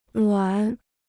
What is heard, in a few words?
"卵", Chinese